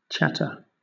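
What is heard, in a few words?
"chatter", British English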